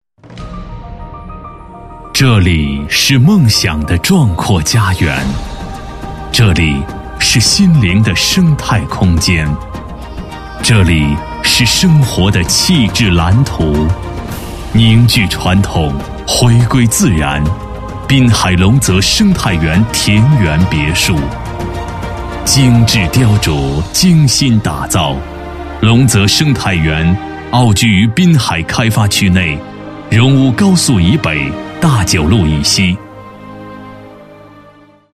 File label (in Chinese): 男40 专题 田园别墅 地产 大气磁性, 国语男声配音